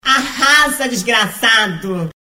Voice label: voz gay arrasa desgraçado

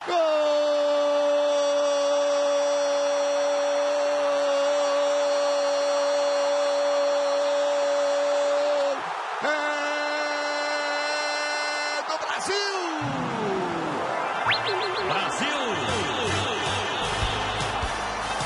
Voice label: gol do brasil efeito sonoro

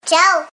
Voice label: criança dizendo tchau

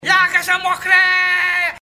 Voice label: comercial bom negócio classificados grátis; tiririca

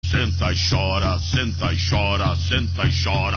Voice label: comercial porto seguro auto

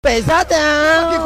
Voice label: comercial loja mes das criancas; pesadao

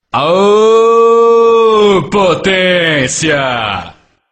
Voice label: aoow potencia vinheta; do melhor do brasil

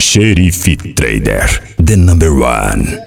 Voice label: vinheta the number one; sheriff trader

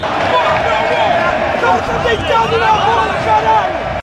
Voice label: torcedor reclamando

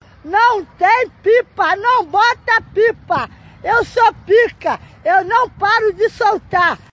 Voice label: voz de galinha não tem pipa

